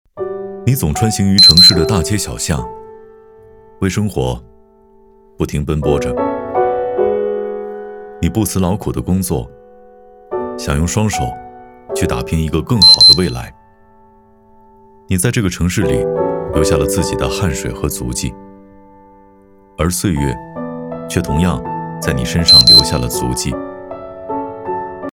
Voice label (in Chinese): 男 独白